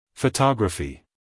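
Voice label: "photography", American English, male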